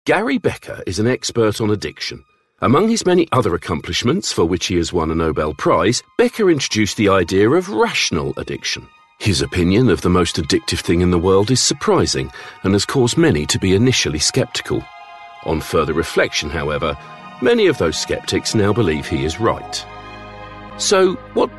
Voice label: London Narration

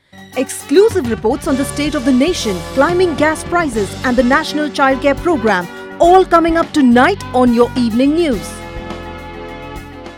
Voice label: news promo

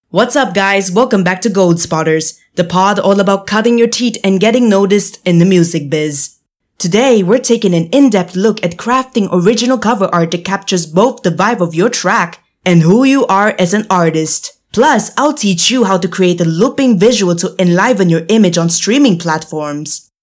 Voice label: Podcast

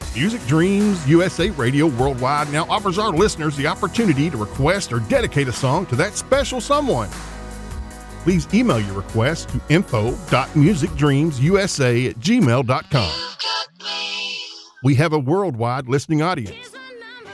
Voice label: radio; Music Dreams

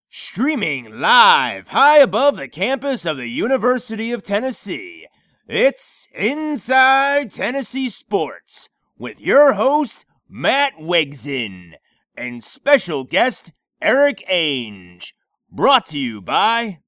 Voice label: sports intro

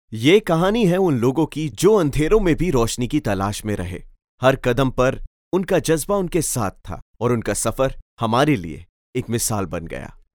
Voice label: Urdu Documentary Narration

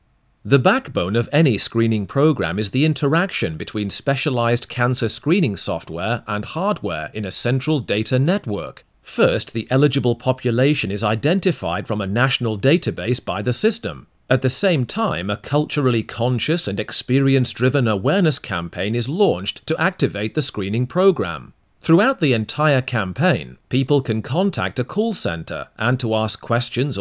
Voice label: MEDICAL NARRATION

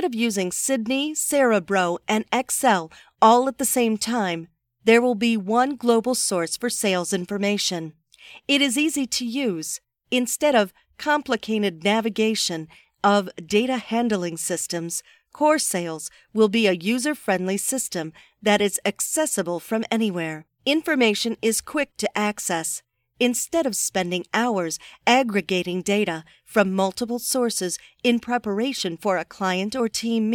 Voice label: Corporate Training

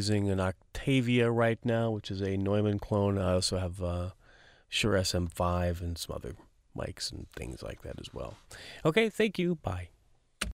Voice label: studio sample

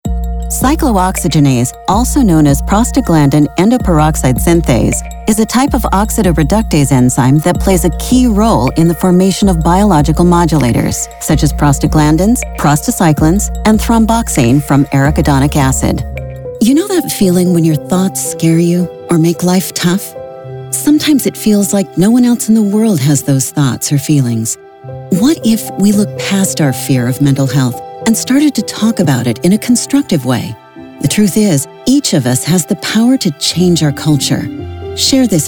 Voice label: medical Narration

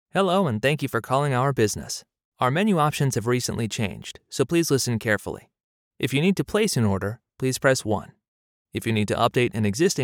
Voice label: IVR sample

